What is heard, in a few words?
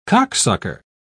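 "cocksucker", English, British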